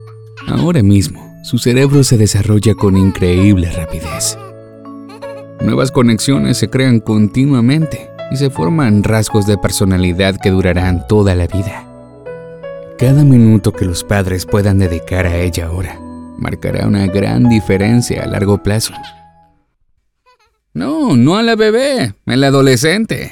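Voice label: Latin Neutral Spanish PSA, voiceover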